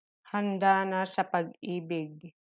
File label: tagalog